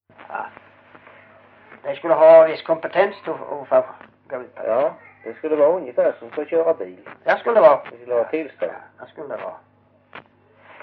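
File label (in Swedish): Hörja Skåne, dialekter; Folkminnen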